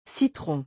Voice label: "citron", French